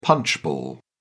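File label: "punchball", British English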